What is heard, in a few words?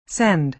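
"send", American English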